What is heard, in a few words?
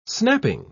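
"snapping", English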